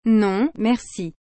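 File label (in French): "Non merci", French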